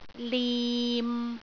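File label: "lim6", Cantonese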